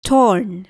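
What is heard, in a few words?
"torn", English word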